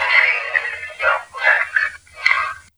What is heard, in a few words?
EVP Take the weapons off amped and slowed